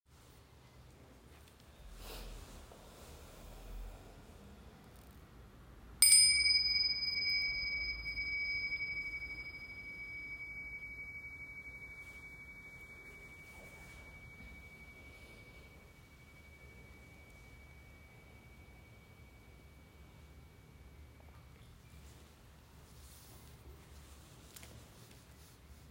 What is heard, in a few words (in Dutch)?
Meditatie met een moeilijkheid; mbsr training februari 2025